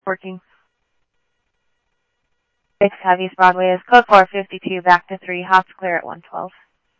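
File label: Mesa police department, scanner audio trunk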